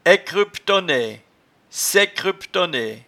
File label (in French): "aiccreuptonnaie", patois jura